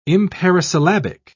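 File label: "imparisyllabic", British English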